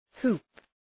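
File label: "hoop", English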